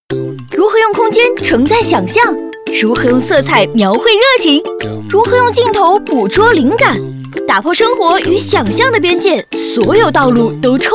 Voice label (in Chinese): 电子类广告轻松愉快